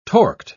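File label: "torqued", English, British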